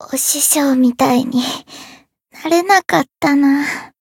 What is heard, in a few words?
retire, jp; alma